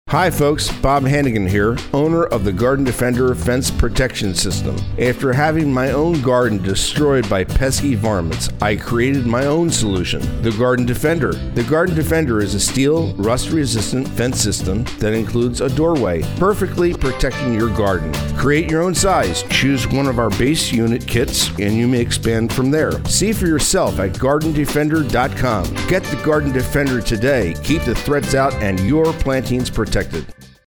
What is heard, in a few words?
Radio Ad